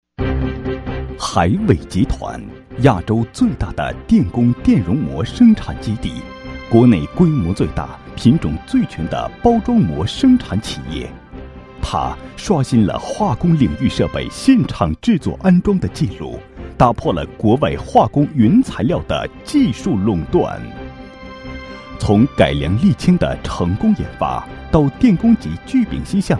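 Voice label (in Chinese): 【专题】男-深沉; 海伟集团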